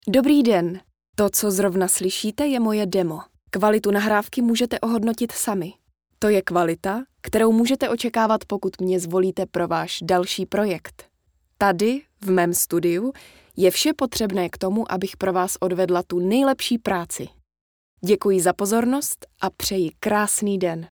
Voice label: czech female